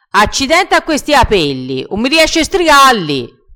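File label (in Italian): "striga", vocabolario dialettale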